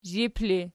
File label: "zhip", Archi